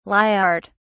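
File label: "lyart", British English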